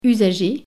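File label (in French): "usager", French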